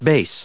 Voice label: "base", en us